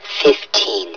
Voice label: "fifteen", hev suit; Half Life Alyx